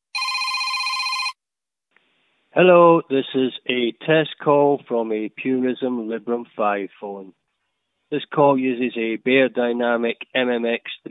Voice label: Test Call, Beyerdynamic MMX 300 analog headset with THX Onyx USB DAC; Librem 5